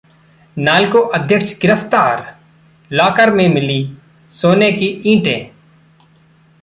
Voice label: Hindi; ai4bharat indicwave2vec models